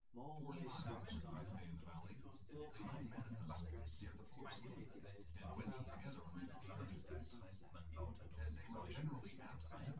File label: male synth conv 1m sim, male; SASSEC07